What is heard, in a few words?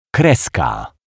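"kreska", Polish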